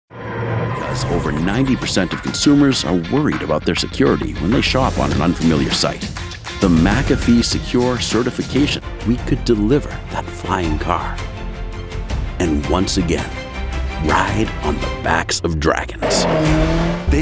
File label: voiceovers Commercial